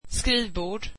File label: swedish desk